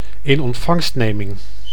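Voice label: "inontvangstneming", Dutch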